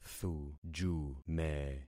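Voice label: "thujume", concatenated syllables; Affixing experiment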